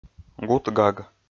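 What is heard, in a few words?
"гут-гаг", Komi, male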